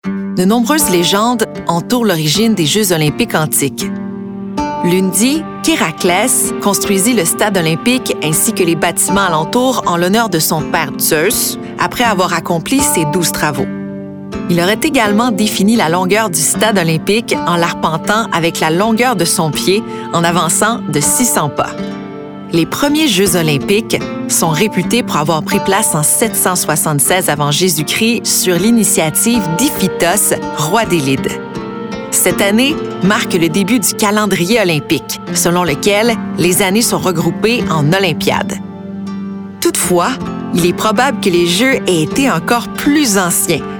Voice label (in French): Narration